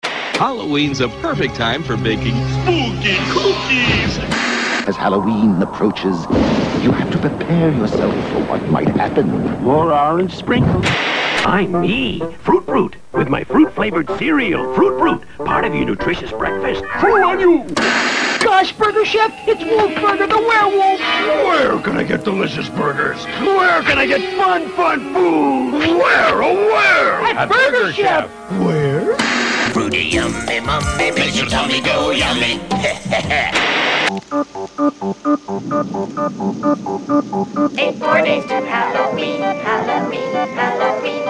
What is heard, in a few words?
commercial bumper; 8 More Days Till Halloween